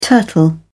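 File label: "turtle", British English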